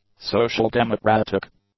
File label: "social-democratic", english; adjectives